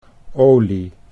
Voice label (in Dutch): "olie", Dutch